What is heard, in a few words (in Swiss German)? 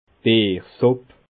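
"biersupp", Bas Rhin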